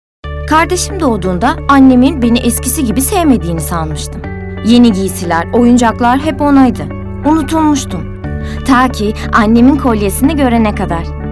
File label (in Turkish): duygusal motive; seslendirme